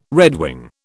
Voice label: "redwing", British